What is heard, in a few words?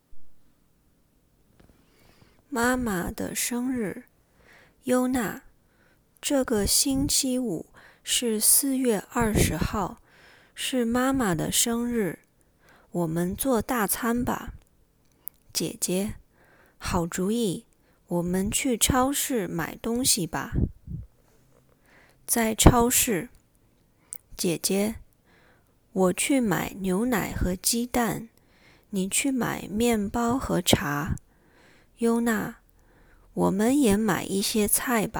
conversation, mandarin; mandarin lesson materials